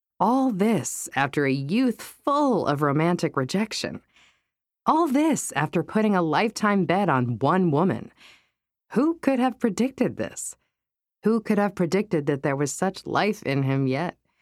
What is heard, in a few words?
Voice Over Talent Fiction 1 Person Narrative